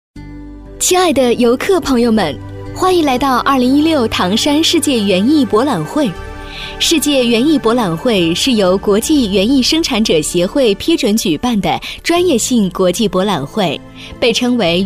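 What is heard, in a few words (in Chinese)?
女-语音导游【景区导览】; 唐山世博园; 甜美亲切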